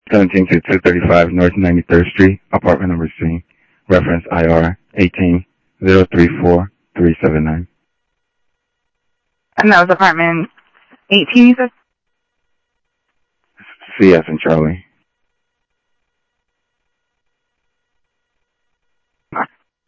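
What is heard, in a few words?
South Scottsdale Sheriff East County, scanner audio trunk; MCSO - EAST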